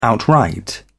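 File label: "outright", British English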